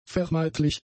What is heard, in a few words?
"vermeidlich", German; adjectives